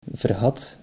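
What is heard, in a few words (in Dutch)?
"vergat", Dutch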